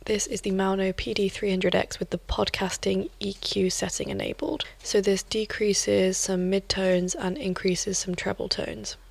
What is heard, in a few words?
podcast vocal tone; maono-pd300x-microphone-review